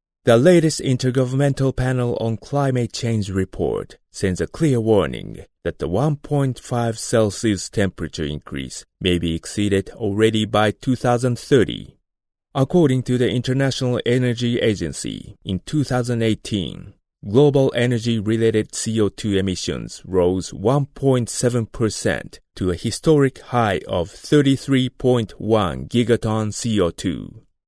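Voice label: Japanese, male, English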